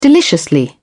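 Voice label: "deliciously", British English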